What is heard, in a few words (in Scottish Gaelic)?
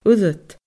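"uiread", Scottish Gaelic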